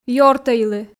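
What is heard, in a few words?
Komi, female; ёртӧйлы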